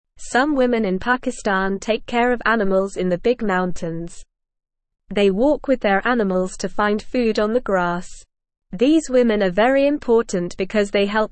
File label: English Newsroom Beginner NORMAL Reading; Women in Pakistan Care for Animals in Mountains